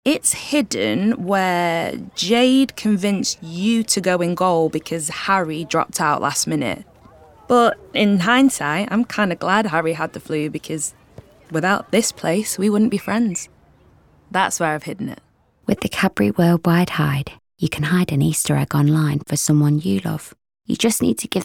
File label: female english slight mancunian accent commercial; english northern accents